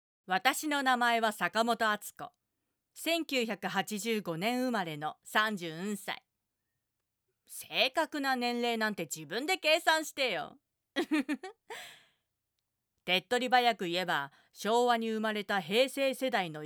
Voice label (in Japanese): 自己紹介, ASMR